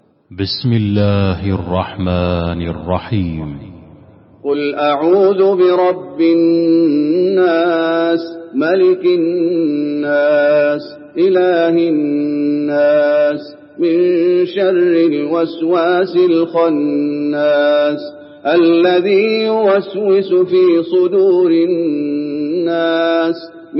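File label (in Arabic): الناس, تلاوة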